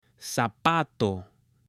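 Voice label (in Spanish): "ZAPATO", male; ROPA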